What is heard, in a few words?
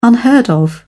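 "unheard of", British English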